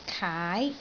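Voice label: "kaai2", Cantonese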